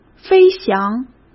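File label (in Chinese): "飞翔", Mandarin Chinese; hsk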